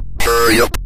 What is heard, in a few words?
"hurry up", Synth Voice; Kenney's Sound Pack; Synth Voice 1